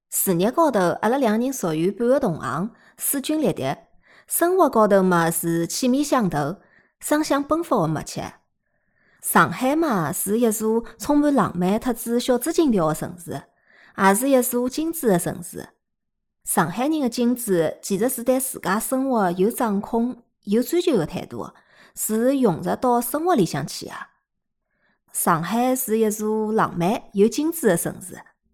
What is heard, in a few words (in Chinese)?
上海方言